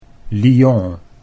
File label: "Lyon", Dutch; The French city